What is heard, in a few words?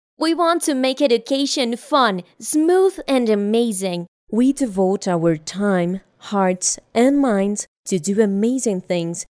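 Spanish Latin America female English; spanish americas voice over talent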